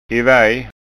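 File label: "ivej", Scanian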